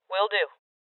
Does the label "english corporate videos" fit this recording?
No